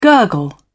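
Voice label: "gurgle", British English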